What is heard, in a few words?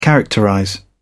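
"characterize", British English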